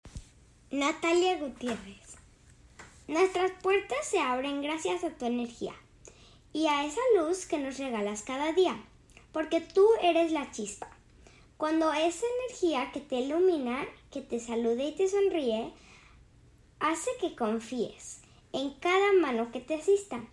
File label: SPANISH